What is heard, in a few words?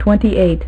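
"twenty-eight", female; MacCribbage; InterStellar MacCribbage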